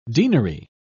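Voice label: "denary", British English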